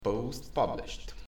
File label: post published, the russian; talky